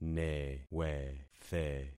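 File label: "newethe", concatenated syllables; Affixing experiment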